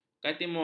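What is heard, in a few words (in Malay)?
"katimun", Malay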